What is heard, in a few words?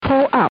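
"Pull Up", English; DCS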